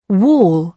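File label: "wall", British English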